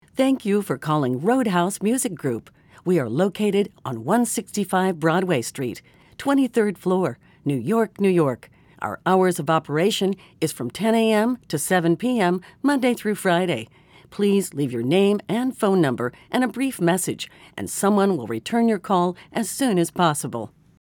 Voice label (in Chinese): 外籍英女,IVR,电话应答系统